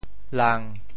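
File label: "lang1", Teochew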